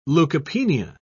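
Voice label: "leucopenia", British English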